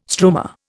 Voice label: "stroma", Indian